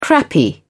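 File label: "crappy", English, British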